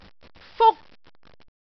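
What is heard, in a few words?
"fuk1", Cantonese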